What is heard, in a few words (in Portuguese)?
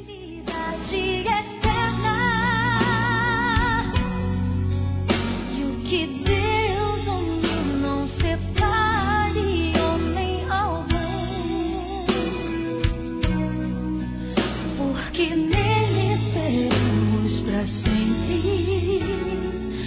ANO NOVO ROMANTICO, masc; Misto